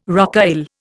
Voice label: "rocaille", Indian

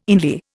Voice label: "inlier", Indian

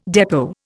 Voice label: "depot", Indian

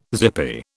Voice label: "zippy", British

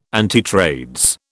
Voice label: "antitrades", British

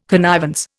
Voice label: "connivance", Indian

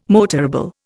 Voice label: "motorable", Indian

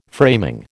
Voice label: "framing", American

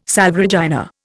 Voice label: "Salve Regina", Indian

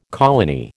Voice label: "colony", American

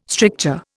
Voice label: "stricture", Indian

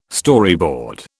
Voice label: "Storyboard", British

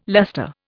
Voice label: "luster", Indian

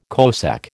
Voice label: "cosec", American

